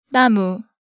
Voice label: "namu", Korean

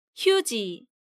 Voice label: "Hyuji", Korean

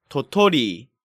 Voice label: "Dotori", Korean

